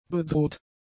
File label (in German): "bedroht", German; adjectives